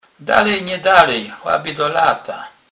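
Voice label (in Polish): "dalej", Kurpie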